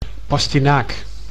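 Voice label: "pastinaak", Dutch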